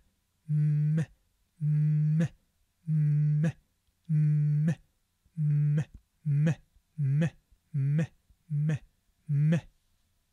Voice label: sound m, english pronunciation